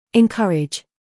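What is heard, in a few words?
"encourage", British English, female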